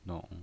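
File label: "nong" third tone, pinyin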